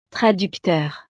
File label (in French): "traducteur", frances; profesiones